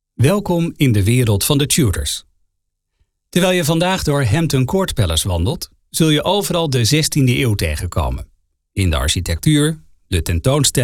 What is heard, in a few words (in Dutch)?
audiotour rustig vriendelijk; tudorworld